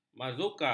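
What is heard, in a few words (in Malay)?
"mazurka", Malay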